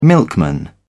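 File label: "milkman", British English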